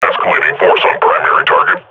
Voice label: firing, combine grunt; Half Life Model Pack

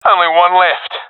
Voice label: enemies left one, professional; vo; Counter Strike Model Pack; CS:GO

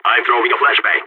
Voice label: flashbang, balkan; vo

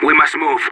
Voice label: radio lets go; Counter Strike; CS:GO; separatist

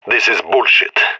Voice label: scared; agents; leet epic

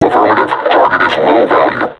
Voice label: taunt, vo combine suppressor; Half Life Alyx

